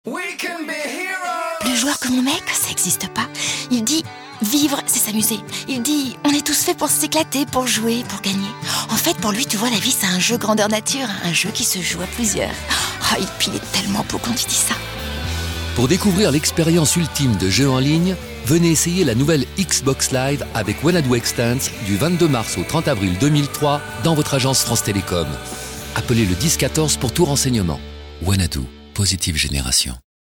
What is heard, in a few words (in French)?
intimiste amoureuse, posee chuchotee; Wanadoo